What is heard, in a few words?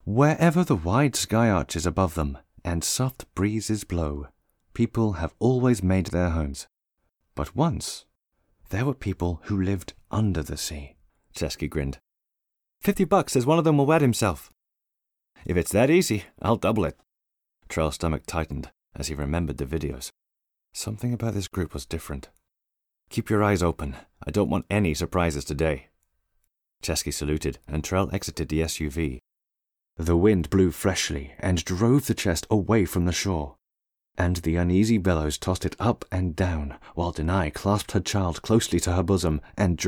Voice Over Audiobook